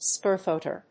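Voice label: "spearthrower", English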